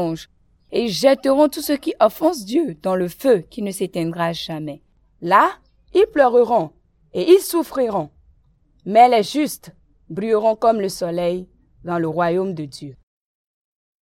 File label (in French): parabole des mauvais herbes, african french; west africa bible stories; the weeds